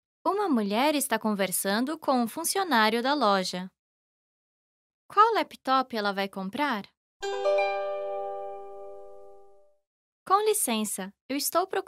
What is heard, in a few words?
Learn Brazilian Portuguese Shopping for a Computer in Brazil